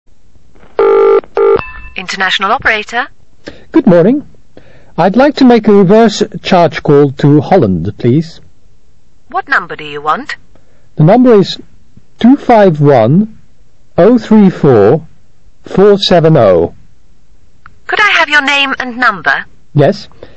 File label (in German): Telefon; icao